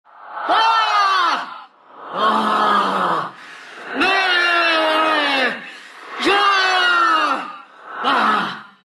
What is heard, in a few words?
dpro wailing; dota; death prophet